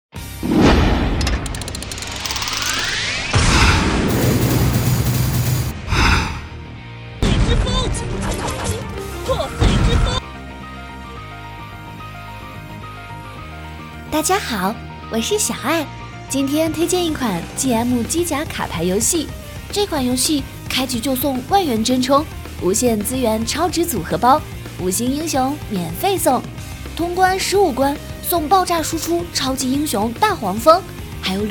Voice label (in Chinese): 游戏角色, 女; 塔尔战纪